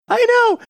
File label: high pitched i know